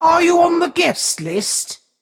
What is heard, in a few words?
Female Stoneborn Play